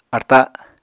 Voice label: "arta", Apatani